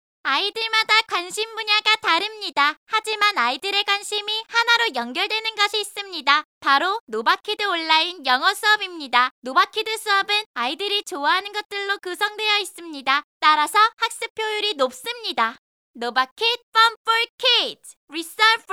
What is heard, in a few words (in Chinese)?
可爱女童